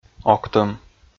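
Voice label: "октӧм", Komi, male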